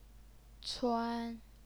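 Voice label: "cuan", first tone, Chinese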